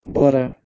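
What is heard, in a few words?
"bhorHeh2", Proto-Indo-European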